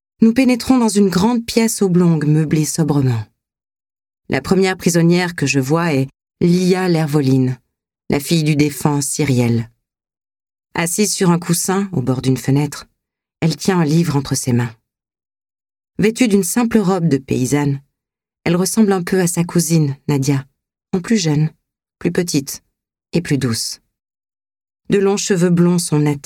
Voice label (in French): Reine courtisane narration